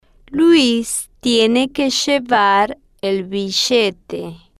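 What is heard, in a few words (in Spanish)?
luis Tiene Que Llevar El Billete, Spanish, female, slow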